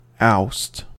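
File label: "oust", American English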